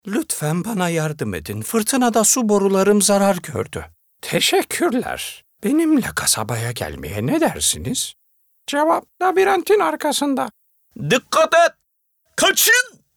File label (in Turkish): ANIMASYON